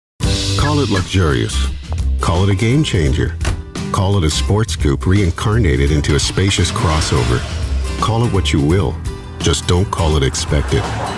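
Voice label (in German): American English, Variabel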